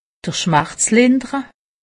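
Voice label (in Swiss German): "lindere", Alsatian; medecine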